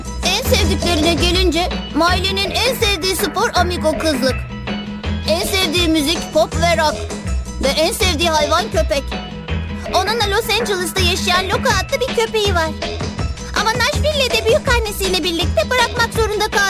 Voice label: turkish f character; voice demos

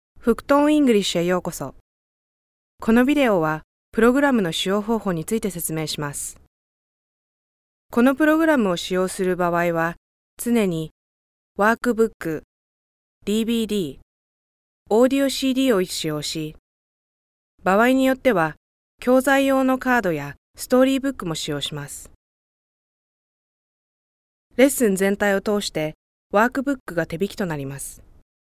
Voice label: japanese f narration; voice demos

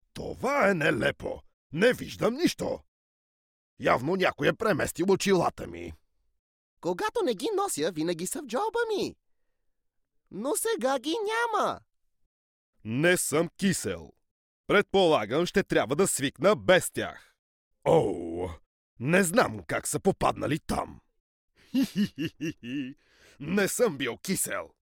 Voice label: bulgarian m character, voice; voice demos

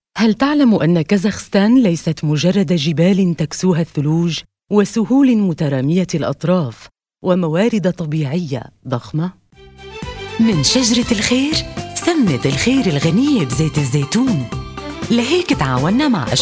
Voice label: arabic f narration; voice demos

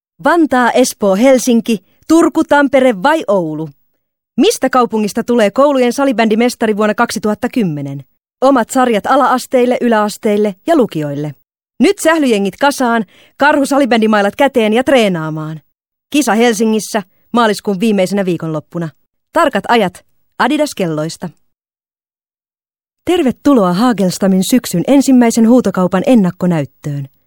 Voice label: finnish f commercial; voice talents

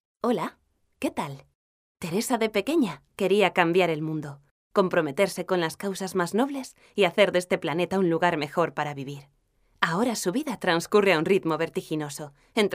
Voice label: spanish f narration; voice demos

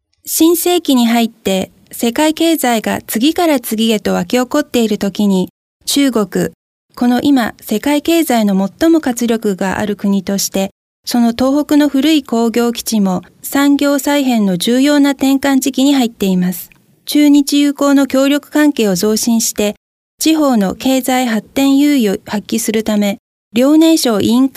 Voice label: japanese f narration; voice demos

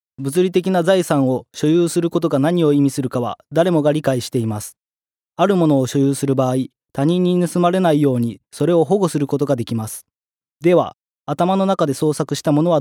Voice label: japanese m narration; voice demos

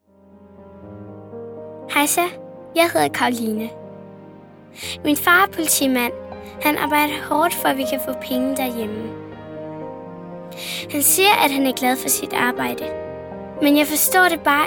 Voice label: danish kid narration, voice

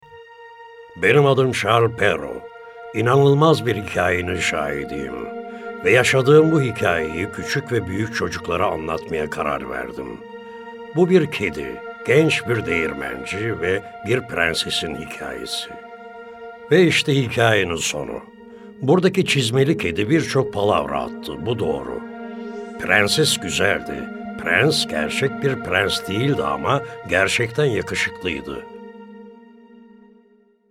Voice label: turkish m narration, Turkish voice

